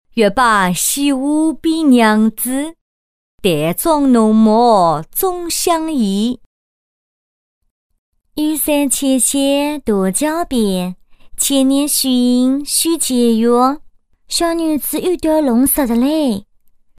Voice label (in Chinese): 杭州方言 女 杭州话 角色; 方言配音